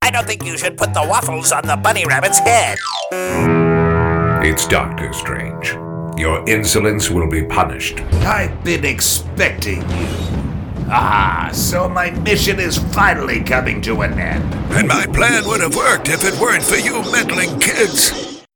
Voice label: Animation